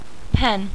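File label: "pen", female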